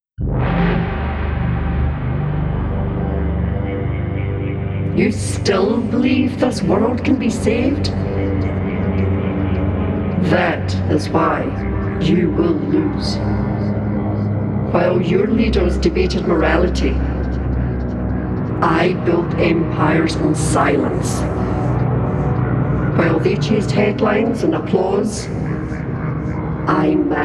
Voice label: VO F SCI FI FANTASY, character performance voice test